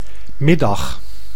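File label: "middag", Dutch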